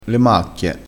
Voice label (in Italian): "macchie", crespinese